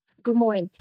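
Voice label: Voice Acting es, female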